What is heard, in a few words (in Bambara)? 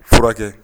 "fura ke", Bambara; actions